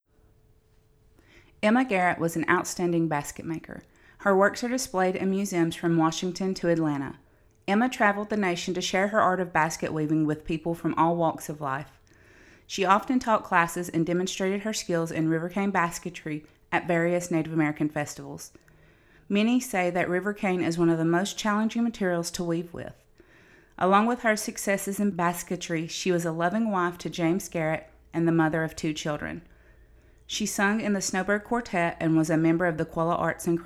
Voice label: english